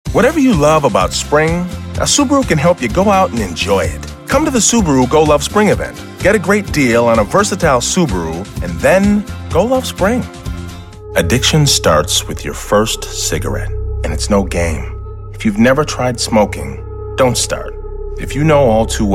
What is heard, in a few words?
Commercial